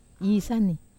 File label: "isani", Wamesa